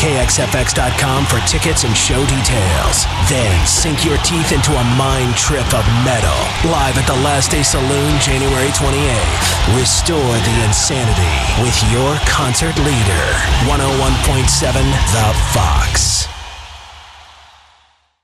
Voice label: alt rock, radio imaging